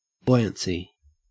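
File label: "buoyancy", Australian English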